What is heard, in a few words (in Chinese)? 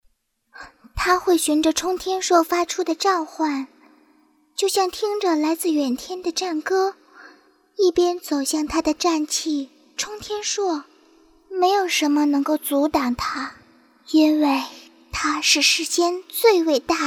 女 萝莉